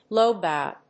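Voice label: "blow-bye", English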